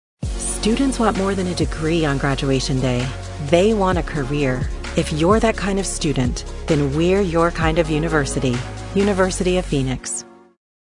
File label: Commercial; University of Phoenix